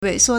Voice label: "wei3suo1", Chinese